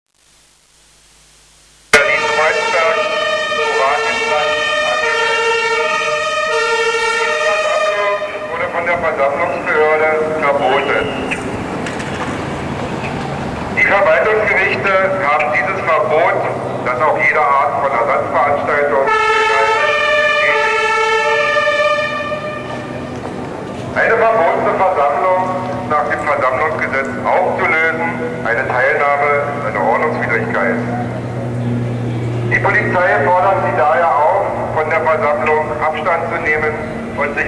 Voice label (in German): Durchsage